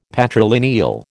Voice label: "patrilineal", American